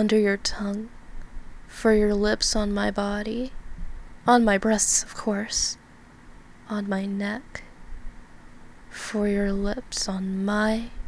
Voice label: Delicious [Erotic Poetry]; script fill uStrokeofLurk